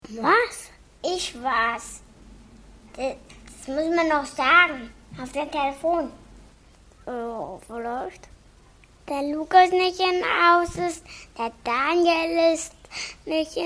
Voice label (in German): anrufbeantworter